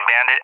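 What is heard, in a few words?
"bandit", English; DCS; Allied Flight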